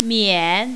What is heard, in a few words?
"mian3", mandarin, female